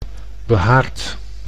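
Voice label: "behaard", Dutch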